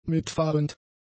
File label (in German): "mitfahrend", German; adjectives